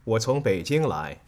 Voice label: "Wo cong Beijing lai", Chinese

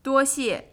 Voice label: "Duoxie", Chinese

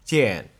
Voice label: "jian", Chinese

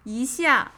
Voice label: "Yi xiar", Chinese

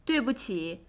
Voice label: "Dui buqi", Chinese

